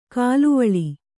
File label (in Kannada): "ಕಲುವಳೆ", Kannada